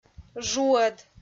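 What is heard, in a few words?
"жуӧд", Komi, female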